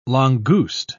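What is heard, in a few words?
"langouste", British English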